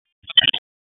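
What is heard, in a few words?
Police Northwest District Tac, radio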